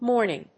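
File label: "mourning", English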